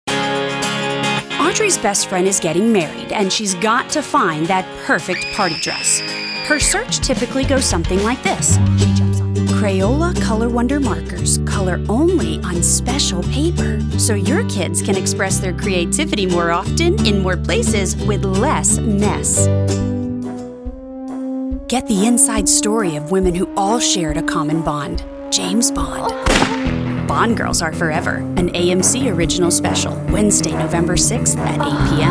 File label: Commercial